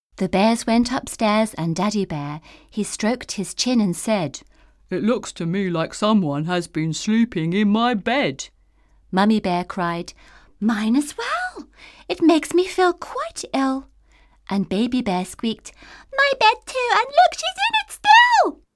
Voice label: eng man; MediaVoix; vice sample